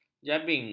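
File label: "jabing", Malay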